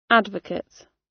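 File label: "advocate" British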